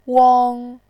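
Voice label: "wang", Mandarin Chinese, tone 1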